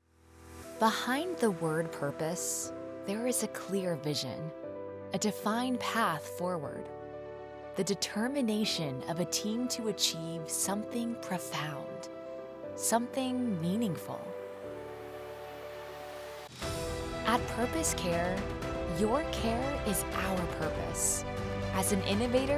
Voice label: english american documentaries; professionelle Synchronsprecherin

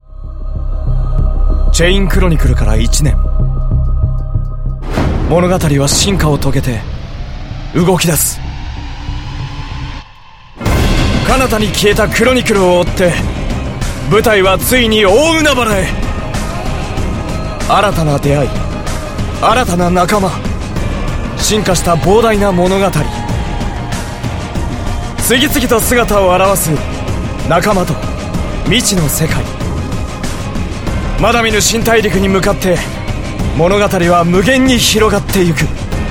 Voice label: japanese movie trailers

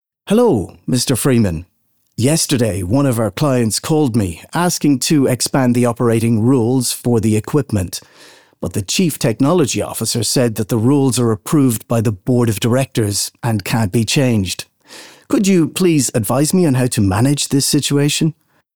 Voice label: english irish documentaries, voice actor; Documentaires

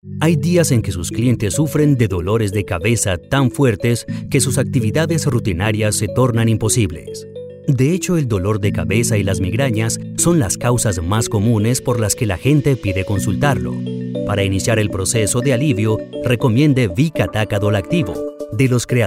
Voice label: spanish latin american corporate videos

